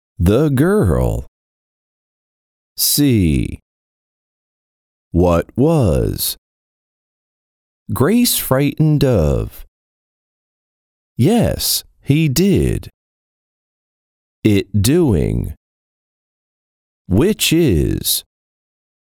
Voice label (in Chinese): 外籍英男 教育 英语词汇 缓速